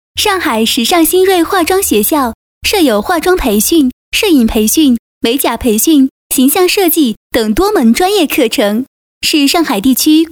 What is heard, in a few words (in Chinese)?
女 广告 化妆学校